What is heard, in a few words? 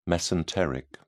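"mesenteric", British English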